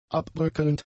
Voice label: "abbröckelnd", German; adjectives